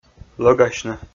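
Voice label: "лӧгасьны", Komi, male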